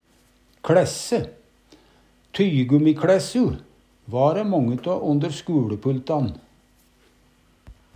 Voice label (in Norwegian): kLaesse, Numedalsmål